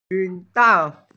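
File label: "zidan", Mandarin Chinese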